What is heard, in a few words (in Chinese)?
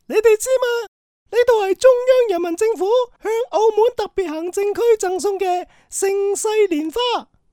男【角色】动画; 粤男